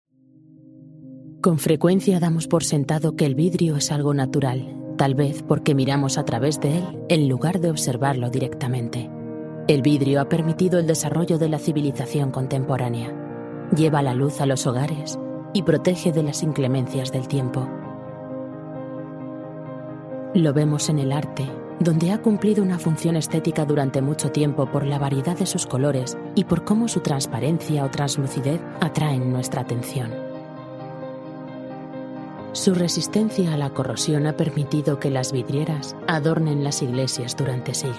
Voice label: FEMALE, advertising